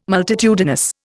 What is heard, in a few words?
"multitudinous", Indian